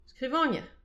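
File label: "skrivagner", Breton; petit precis de prononciation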